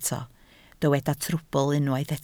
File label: "trwbl", Welsh